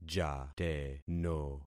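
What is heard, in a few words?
"jateno", concatenated syllables; Affixing experiment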